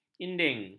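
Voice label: "inding", Malay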